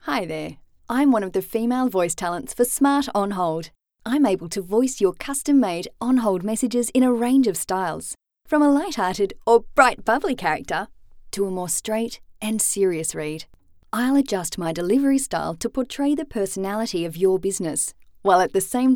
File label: FEMALE; Voice Over artists; Smart On Hold Messages